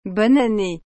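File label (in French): "Bonne Année", French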